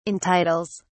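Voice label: "entitles", English, British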